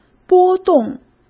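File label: "波动", Mandarin Chinese; hsk; chinese-flashcards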